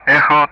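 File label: Speech Sound Russian Common Player Alphabet; Echo-begin; DCS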